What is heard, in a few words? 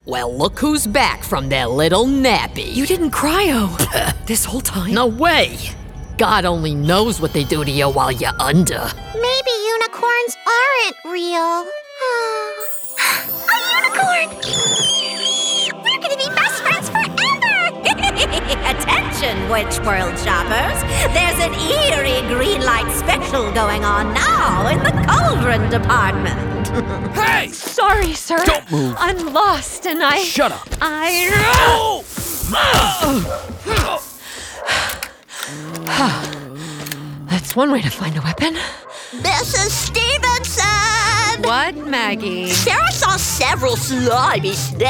Character